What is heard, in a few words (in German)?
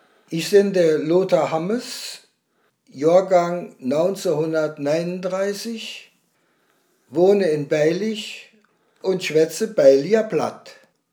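vorstellung, O-Ton Hunsrück; Beulich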